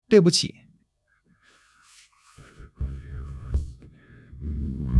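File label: "对不起", Mandarin Chinese; HSK1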